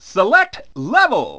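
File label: announcer, Japanese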